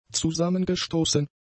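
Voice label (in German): "zusammengestoßen", German; adjectives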